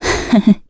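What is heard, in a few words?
Vox Happy, Korean; Valance; kingsraid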